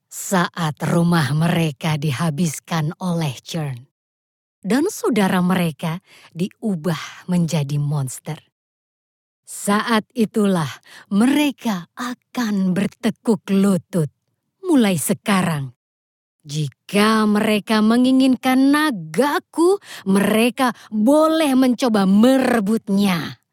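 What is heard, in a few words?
Indonesian, game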